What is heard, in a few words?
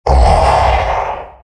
happy; Undying; Dota 2